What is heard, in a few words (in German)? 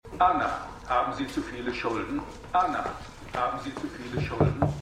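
Männliche Werbestimme; Extrapolations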